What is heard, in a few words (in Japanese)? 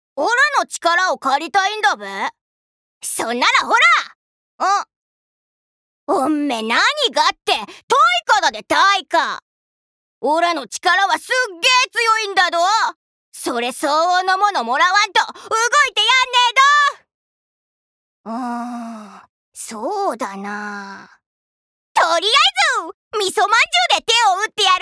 アニメ